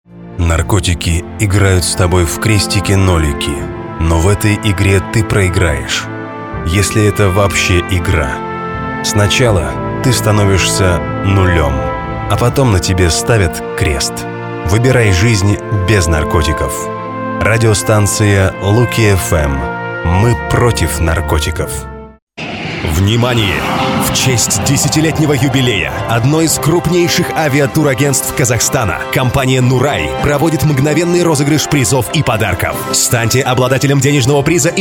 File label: dictory men